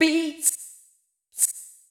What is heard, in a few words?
VOICE GRL HOUSE 125 A